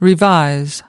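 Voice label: "revise", English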